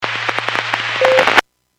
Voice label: Skywarn 146.67; Stevens Point

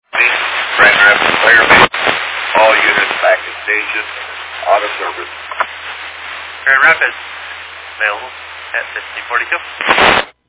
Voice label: Fire Dispatch

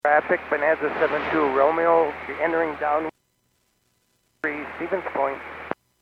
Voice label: Airports 122.7, Radio; KSTE

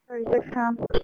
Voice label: Police Dispatch

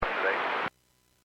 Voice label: Airports 121.5 Emergency, Radio

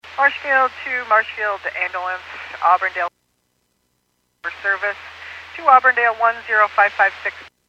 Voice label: Fire Dispatch

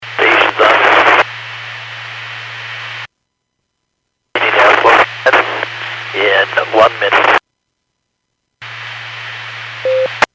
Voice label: Skywarn 146.67; Stevens Point

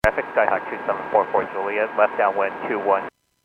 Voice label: Airports 122.7, Radio; KSTE

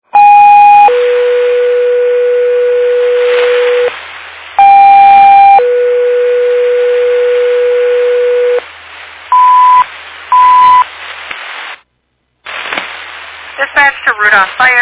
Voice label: Fire Dispatch

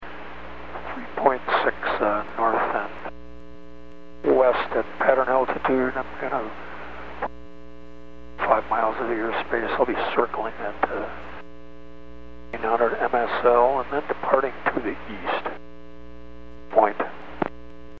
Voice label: Aviation 122.7; KSTE

